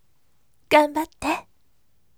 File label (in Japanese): voice ganbatte yasashime